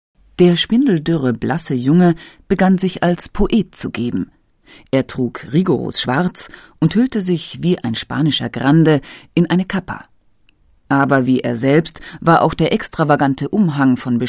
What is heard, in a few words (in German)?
Kommentar Voiceover; Neruda